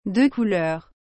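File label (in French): "deux couleurs", French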